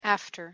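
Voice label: pronunciation "after", English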